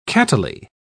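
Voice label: "cattily", British English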